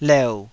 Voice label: "leu3", Taiwanese